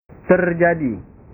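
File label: "terjadi", Indonesian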